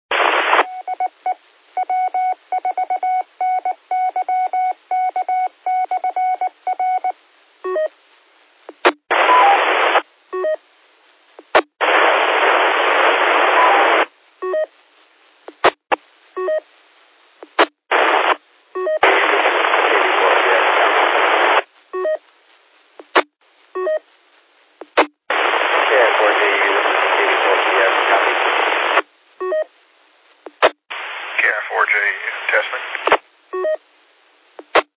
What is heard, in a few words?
qso rec repeater logic